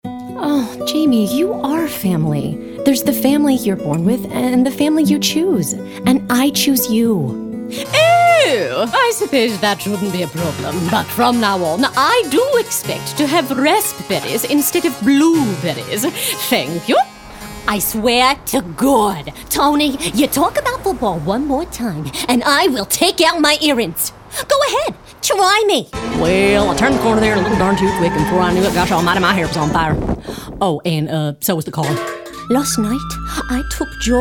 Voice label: Animation VO